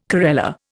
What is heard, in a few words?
"corella", Indian